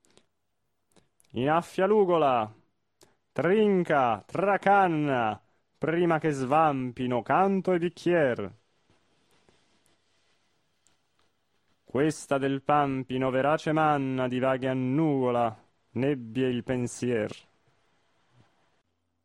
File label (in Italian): espressivo; Otello